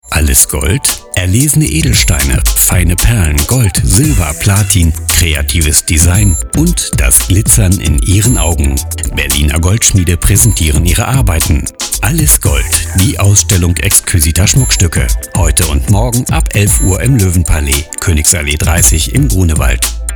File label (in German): Lifestyle Luxus Radiowerbung